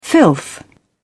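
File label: "filth", British English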